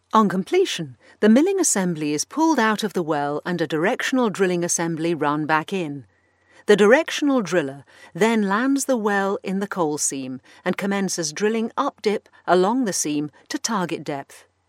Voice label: corporate